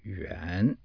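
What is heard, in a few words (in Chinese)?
"yuan", Chinese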